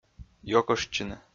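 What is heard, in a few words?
"ёкыштчыны", Komi, male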